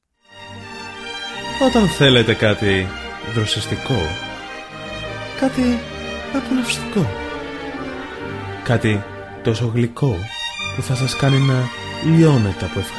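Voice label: Greek, Commercial; GoLocalise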